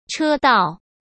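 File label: "车道", Mandarin Chinese; chinese flashcards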